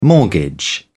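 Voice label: "mortgage", British English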